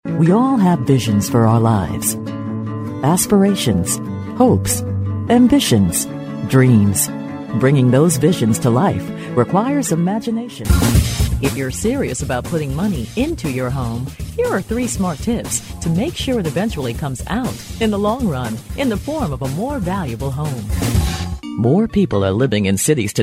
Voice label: women narration